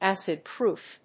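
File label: "acid-proof", English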